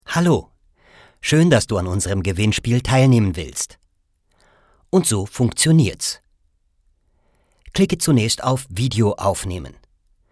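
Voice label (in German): Erklärfilm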